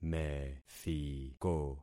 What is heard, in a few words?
"methiko", concat syllables; Affixing experiment